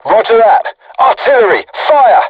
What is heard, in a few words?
voice message art shoot, vietnamese